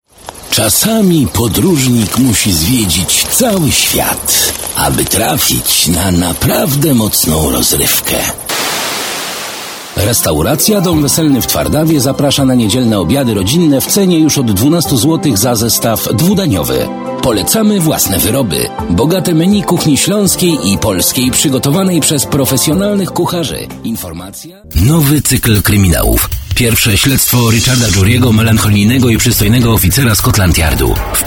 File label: COMMERCIALS